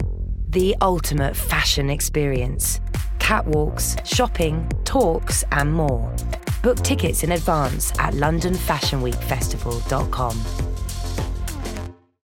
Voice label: Commercial; LFW